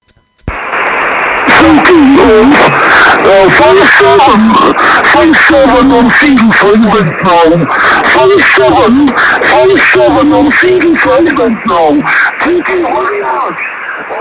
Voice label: 9cm ssb